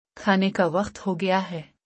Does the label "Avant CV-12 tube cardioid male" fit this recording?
No